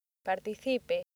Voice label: "participe", accent; laboratori fonetica; practiques accent